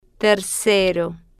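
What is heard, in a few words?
"tercero", Spanish, female, slow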